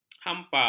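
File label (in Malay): "hampar", Malay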